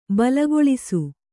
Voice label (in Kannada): "ಬಲಿಗೊಲಿಸು", Kannada